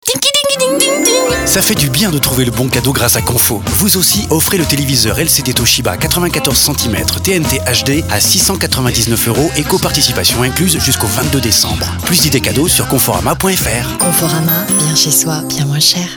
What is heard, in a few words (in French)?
radio énergique joviale; CONFORAMA